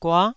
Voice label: "gua9", taiwanese